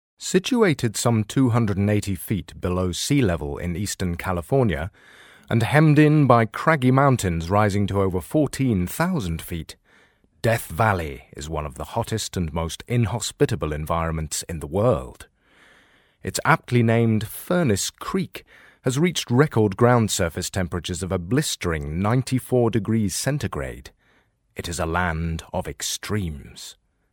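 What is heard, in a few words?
English, British, male; english british voice over talent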